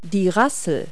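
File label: "rattle", English word; Multi-Lingual Talking Picture Dictionary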